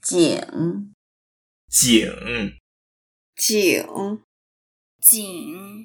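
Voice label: "jing3", Mandarin Chinese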